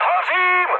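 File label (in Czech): Czech on fire, mood medium; speech; War Thunder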